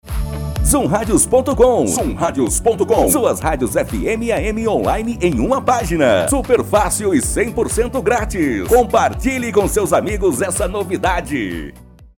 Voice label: radios spot